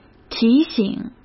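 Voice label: "提醒", Mandarin Chinese; hsk; chinese-flashcards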